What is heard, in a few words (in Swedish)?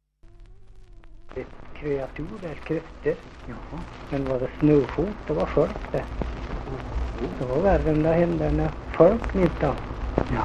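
Hede Härjedalen, dialekter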